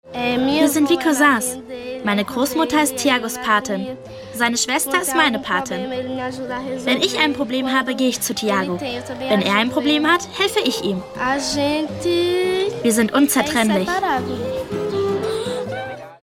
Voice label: Freundlich

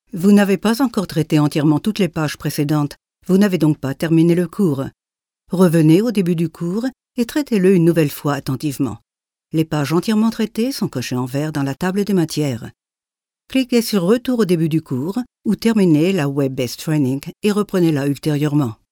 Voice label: FR Neutral

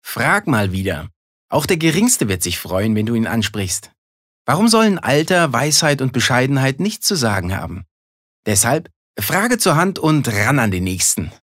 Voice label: Werbung, Freundlich

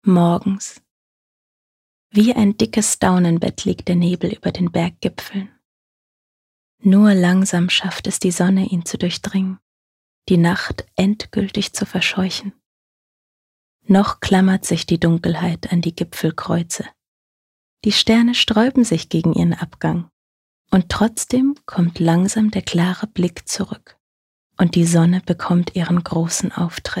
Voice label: Schauspiel Sanft

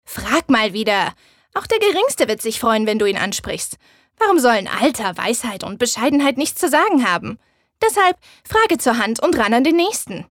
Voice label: Werbung Frech

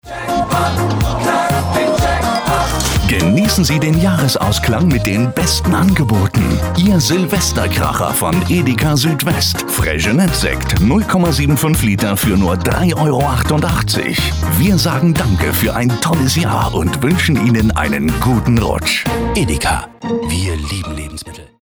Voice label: W Fremdtake Freundlich

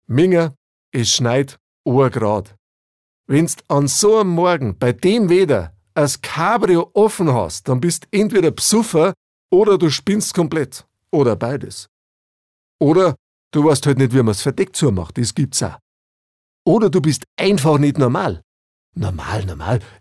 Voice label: Dialekt Bayerisch

